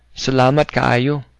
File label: thanks, cebuano